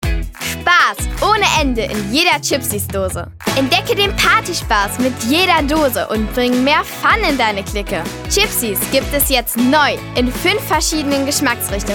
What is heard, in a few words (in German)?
Werbung; Chipsies